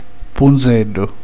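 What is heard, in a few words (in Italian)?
"pennello", Italian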